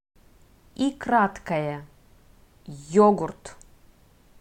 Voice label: "й", Russian

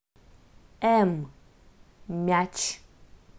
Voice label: "м", Russian

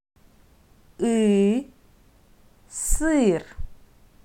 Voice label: "ы", Russian

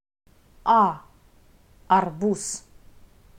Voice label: "а", Russian

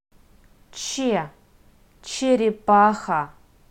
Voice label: "ч", Russian

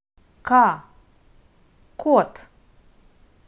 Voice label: "к", Russian

